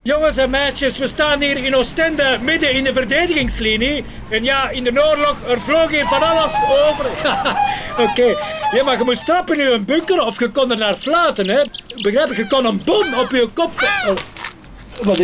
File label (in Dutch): radiospot; raversyde; radio 2wvl; studio sonart